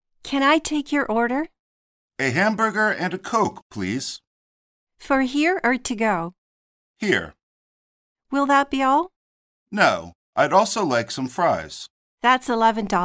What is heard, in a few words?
conversation; where are you from